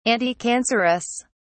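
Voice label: "anticancerous", English, British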